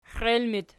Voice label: xiel loc, archi